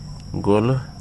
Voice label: "die", Wahgi; lae unitech